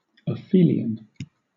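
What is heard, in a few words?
"aphelion", British English; pronunciations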